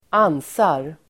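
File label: "ansar", Swedish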